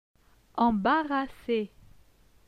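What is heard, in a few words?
"Embarrasse", French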